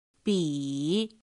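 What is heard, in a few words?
"bǐ", chino mandarin; pinyin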